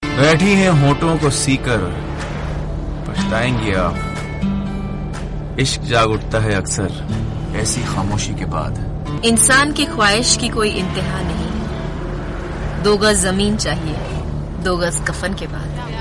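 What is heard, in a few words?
poetry, ringtone; fanaa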